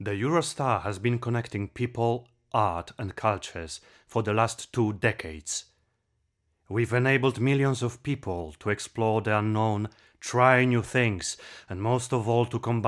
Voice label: male, English with Polish accent, narration

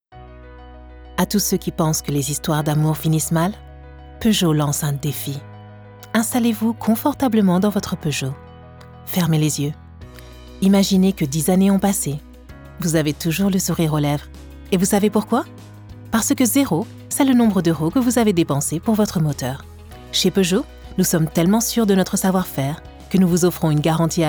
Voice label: female French commercial; peugeot

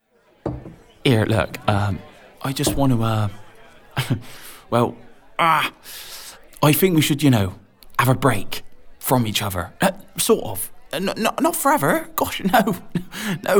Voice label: male west country accent commercial; cornish rattler

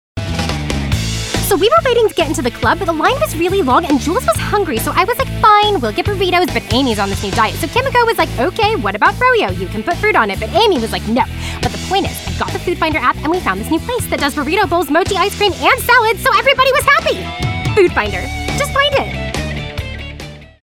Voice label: female English USA Californian accent commercial; voices; foodfinder; devine voices

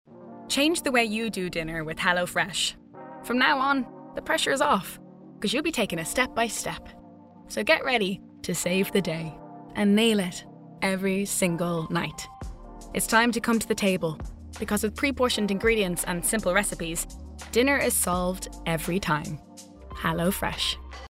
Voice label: female Irish commercial; irish southern

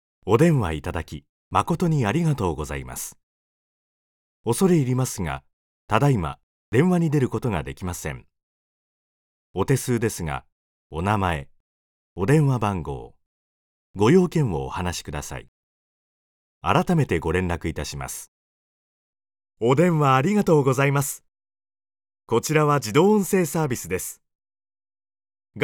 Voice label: male japanese ivr

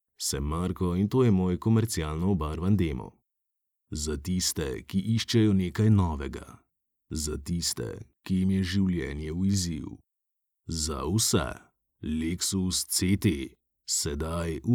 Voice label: male slovenian commercial compilation; devine voices

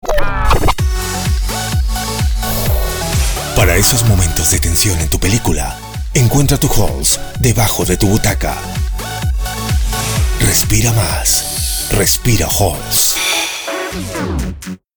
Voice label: male spanish peruvian neutral spanish latam promo; devine voices

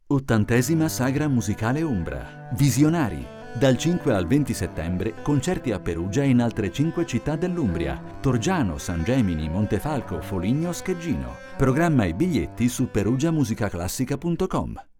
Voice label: male italian radio commercial; Devine Voices

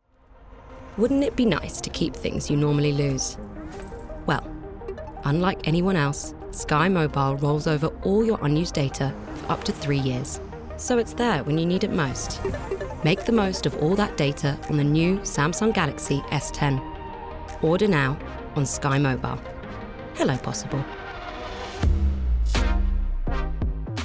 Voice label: female english commercial; english southern accents; sky mobile

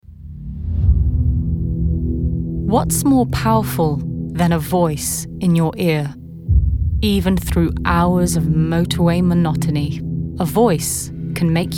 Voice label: female albanian and english commercial; voices

